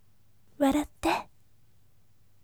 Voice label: voice waratte yasashime

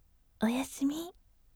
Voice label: voice oyasumi yasashime

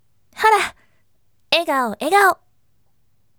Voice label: voice "hora egaoegao" akarume

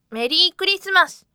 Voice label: voice merry christmas tundere

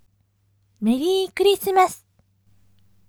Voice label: voice merry christmas oneesan

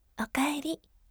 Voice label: voice okaeri yasashime

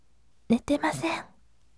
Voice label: voice netemasen yasashime

